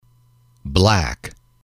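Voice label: "black", male, English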